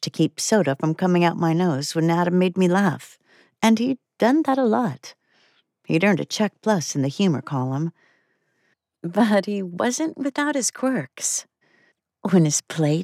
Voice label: Romance, 1st F:M, A First Date